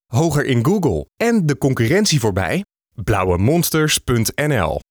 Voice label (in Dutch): spot; lauwe Monsters; concurrentie